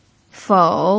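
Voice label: "fou", Mandarin Chinese, third tone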